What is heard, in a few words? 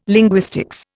"linguistics", Indian